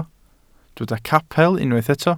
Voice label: "capel", Welsh